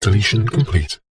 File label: science fiction computer console voice robotic male says deletion complete; zapsplat